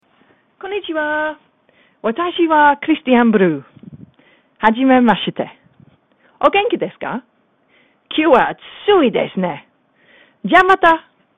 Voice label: Japanese